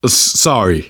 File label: sorry, survivor voice manager; Left 4 Dead 2; left4dead2 dlc3